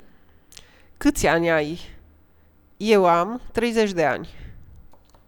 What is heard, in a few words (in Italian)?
dati, rumeno; frasi utili